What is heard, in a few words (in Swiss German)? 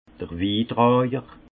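"witrajer", Alsace Haut Rhin; vin; porteur de vin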